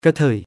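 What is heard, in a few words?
"กะเทย" Thai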